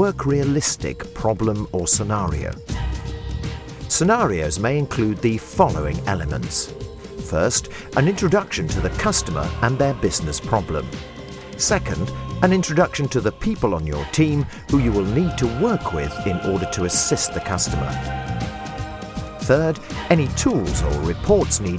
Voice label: Informative and engaging male voiceover for Best Practises E-Learning Friendly, warm and professiona